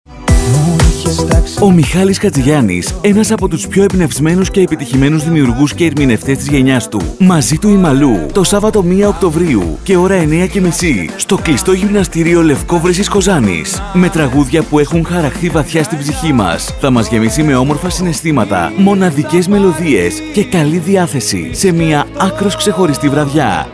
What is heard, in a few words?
RADIO SPOT